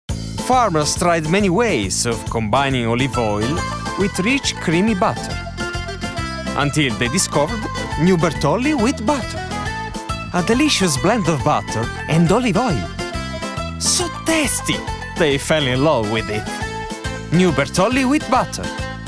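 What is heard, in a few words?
Italian, male, English; bertolli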